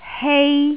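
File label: "hei1", Cantonese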